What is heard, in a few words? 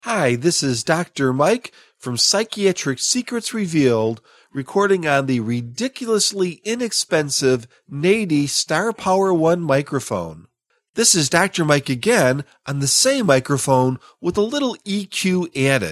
Nady SP1